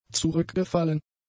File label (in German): "zurückgefallen", German; adjectives